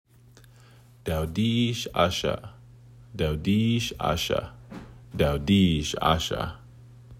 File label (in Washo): Dawdiishasha, Washoe Language; (in the trees, forest); Lake Tahoe